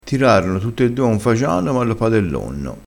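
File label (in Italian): "padellonno", dialettale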